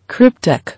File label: "Cryptic", English